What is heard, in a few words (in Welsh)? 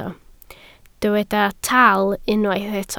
"tal", Welsh